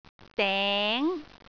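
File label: "deng2", Cantonese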